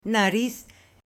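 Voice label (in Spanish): "nariz" Spanish, female; CUERPO; AppLenguaje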